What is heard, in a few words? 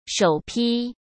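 "首批", Mandarin Chinese; hsk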